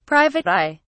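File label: "private eye", British English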